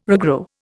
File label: "regrow", Indian